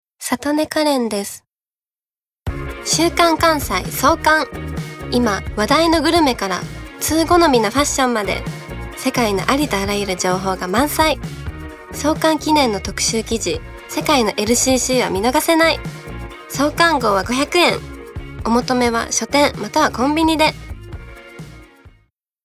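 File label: commercial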